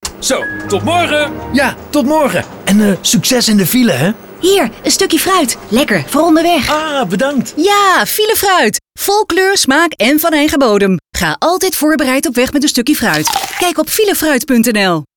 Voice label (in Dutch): Wervende voice over zonder muziek; Filefruit